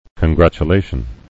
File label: "congratulations", English